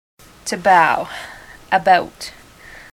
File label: to bow about, ontario